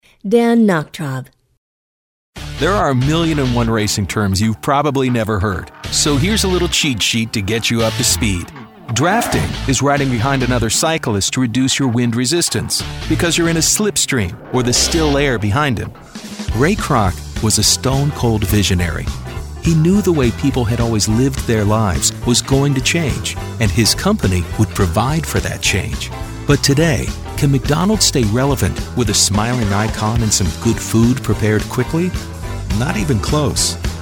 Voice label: Narration